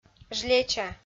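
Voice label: "жлеча", Komi, female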